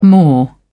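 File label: "maw", British English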